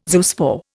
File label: "zoospore", Indian